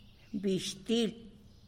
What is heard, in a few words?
"bish til", tlacolula; soap